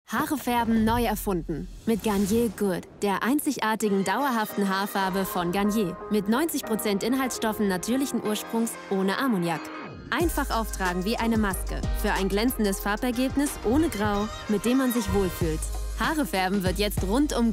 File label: TV Spot; Garnier GOOD